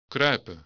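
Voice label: "kruipen", Dutch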